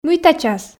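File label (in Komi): мыйта час, Komi, female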